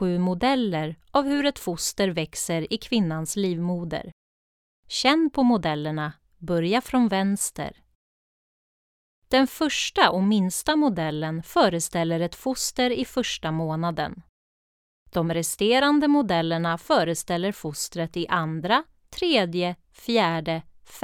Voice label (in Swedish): syntolkning; Station 6